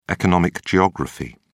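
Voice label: "economic geography", British English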